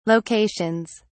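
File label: "locations", English, British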